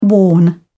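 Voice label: "warn", English, British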